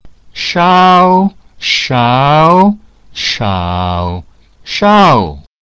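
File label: "shao", Mandarin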